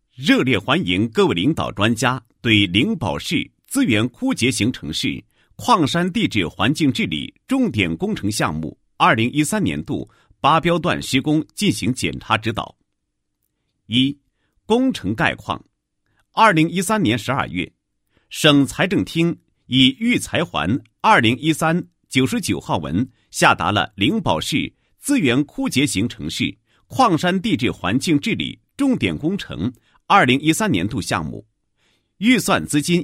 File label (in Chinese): 男 项目介绍; 城市矿山地质环境治理重点工程项目